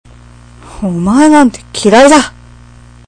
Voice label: dislike m